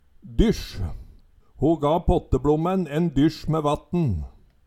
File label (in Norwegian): "dysj", Numedalsmål; Ordet brukast berre i eintal.